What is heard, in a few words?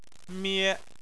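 "mie", Chinese, first tone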